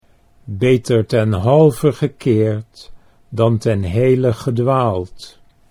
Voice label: "beter ten halve gekeerd dan", Dutch

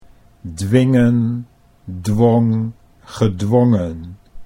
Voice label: "dwingen dwong gedwongen", Dutch

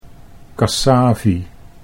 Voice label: "kassaavie", Dutch

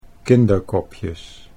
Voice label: "kinderkopjes", Dutch

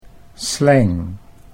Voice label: "slang", Dutch

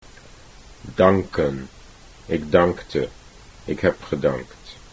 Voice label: danken ik dankte, Dutch

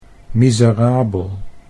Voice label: "miserabel", Dutch

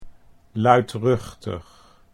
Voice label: "luidruchtig", Dutch

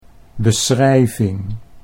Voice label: "beschrijving", Dutch

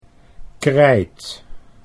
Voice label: "krijt", Dutch

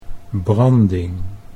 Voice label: "branding", Dutch

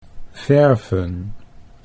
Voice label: "verven", Dutch

